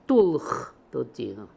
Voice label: "Toolkhtotino", pronounciations; Fourth Way; Beelzebub